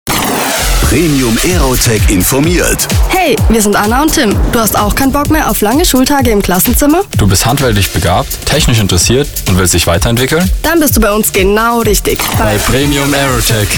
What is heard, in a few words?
radiospot; Premium Aerotec